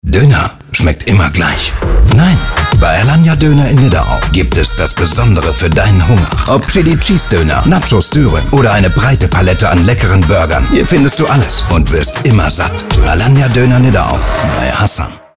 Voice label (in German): Werbung; AlanyaDöner